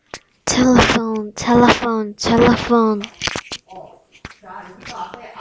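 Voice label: "telephone", spoken word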